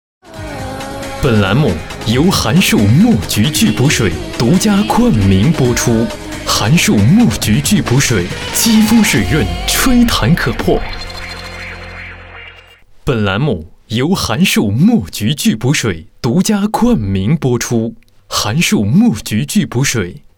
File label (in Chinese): 男 广告 栏目冠名, 国语男声配音, 青春时尚